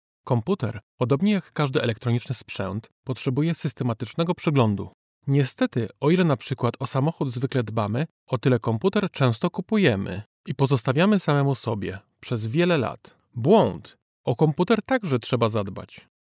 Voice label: male polish voice over talent narrative

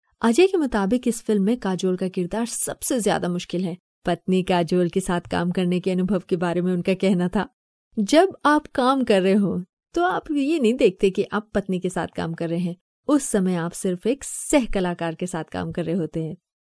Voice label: female hindi voice over narrative

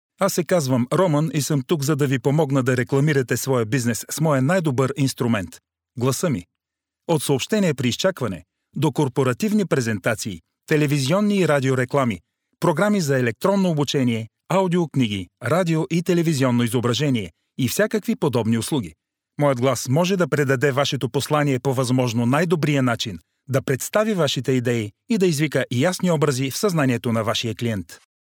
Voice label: male bulgarian voice over narrative

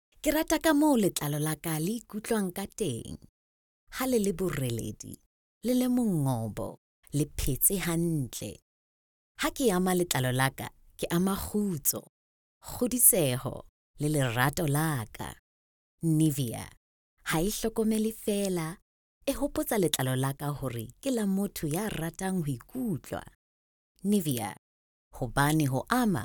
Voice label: female sesotho voice over character

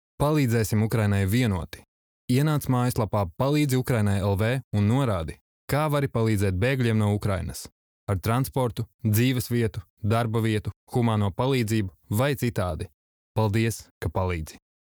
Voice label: male latvian voice over talent narrative

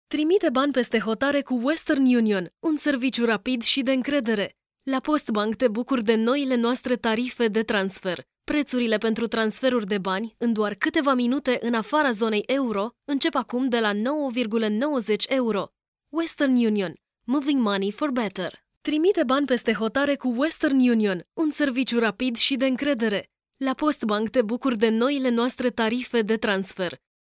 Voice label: female romanian voice over sales

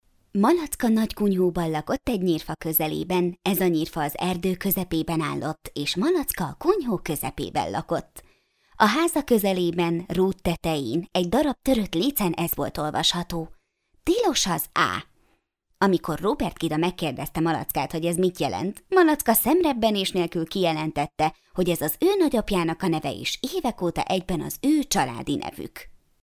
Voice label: female hungarian voice over narrative

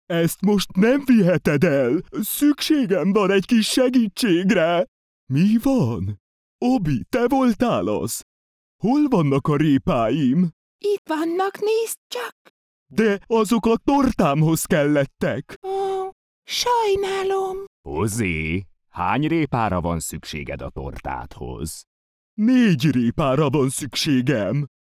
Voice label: male hungarian voice over talent character

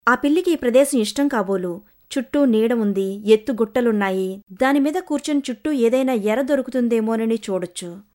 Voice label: female telugu voice over narrative

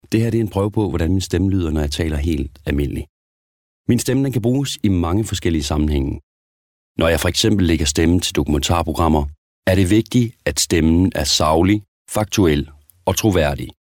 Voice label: male danish voice over narrative

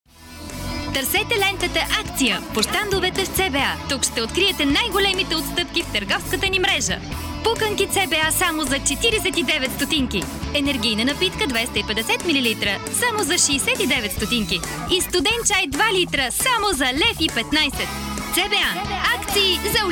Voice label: female bulgarian voice over sales

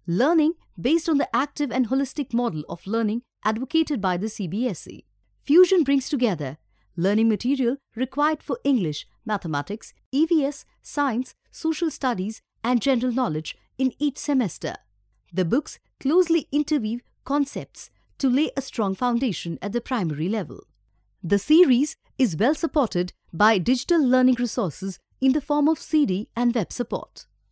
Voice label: female tamil voice over english

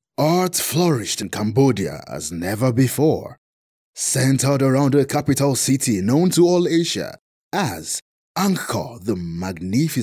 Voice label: male african voice over narrative

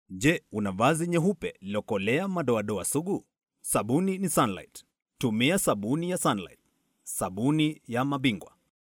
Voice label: male swahili voice over talent sales

